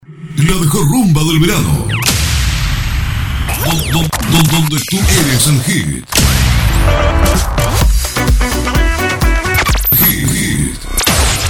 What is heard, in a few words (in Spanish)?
voz locutor comercial